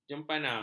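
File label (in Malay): "jempana", Malay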